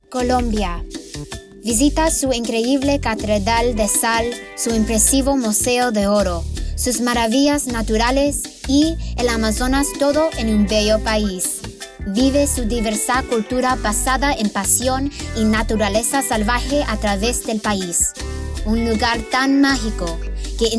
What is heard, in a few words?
colombia vo spanish